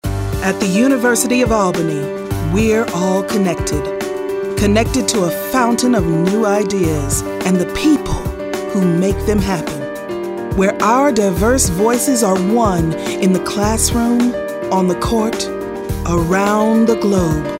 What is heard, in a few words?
motivational